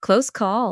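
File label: "close call", English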